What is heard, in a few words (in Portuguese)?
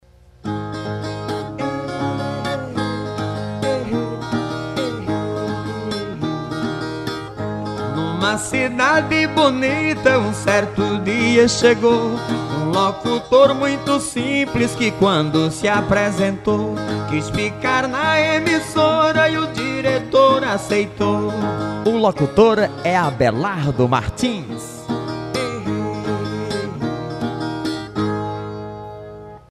locutor vinheta